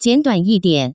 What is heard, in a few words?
"jianduan", Chinese, Taiwan, salon; jianfa